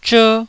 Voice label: "zher1", Taiwanese syllable